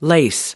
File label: "lace", us